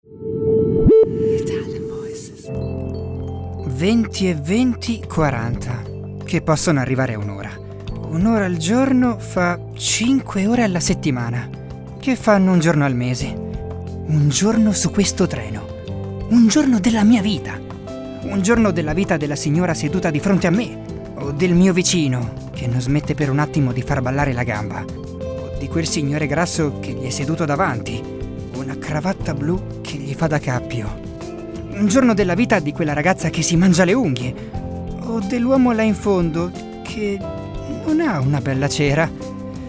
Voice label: ITALIAN VOICES, male voices; Naked Reel